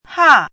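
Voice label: "hà", Chinese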